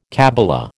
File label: "kabbala", American